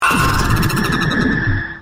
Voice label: cursed speech